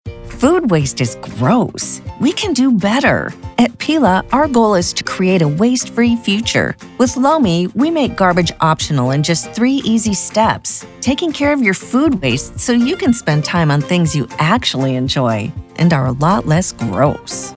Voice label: spot; Lomi